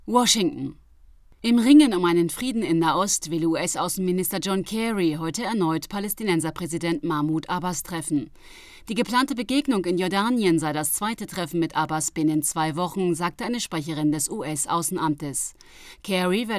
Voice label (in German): Nachrichten